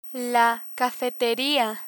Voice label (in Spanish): "cafeteria", Spanish